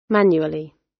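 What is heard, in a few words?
"manually", British English; Technology; Công nghệ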